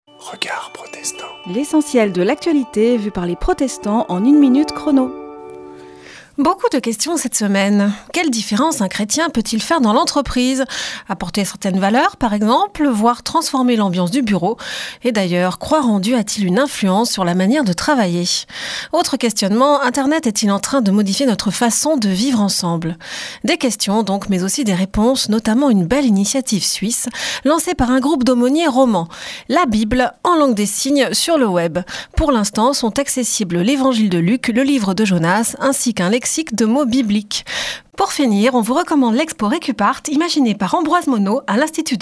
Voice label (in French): Spot radio; Regards protestants